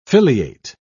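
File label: "filiate", English, British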